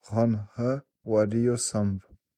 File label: civ male heal, napatan